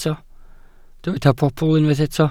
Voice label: "pobl", Welsh

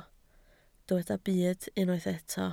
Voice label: "byd", Welsh

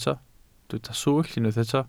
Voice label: "swllt", welsh

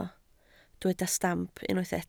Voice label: "stamp", Welsh

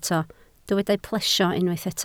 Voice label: "plesio", Welsh

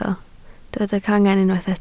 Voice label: "cangen", Welsh

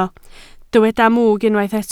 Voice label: "mwg", Welsh

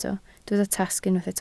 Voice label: "tasg", Welsh

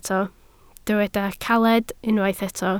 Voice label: "caled", Welsh

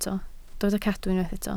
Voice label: "cadw", Welsh

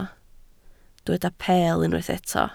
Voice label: "peel", Welsh

